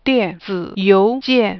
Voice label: e-mail, chinese